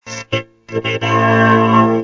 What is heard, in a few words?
speak, vocoder